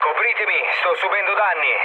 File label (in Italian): aircraft damaged, mood low, speech it